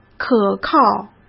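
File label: "可靠", Mandarin Chinese; chinese-flashcards; hsk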